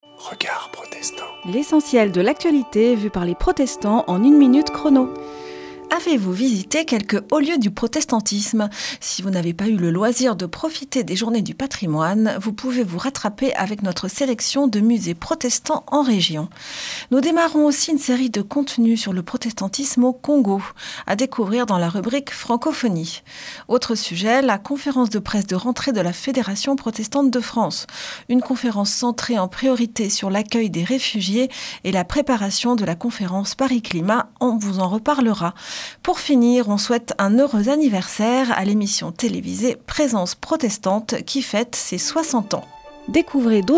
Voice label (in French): Spot radio; Regards protestants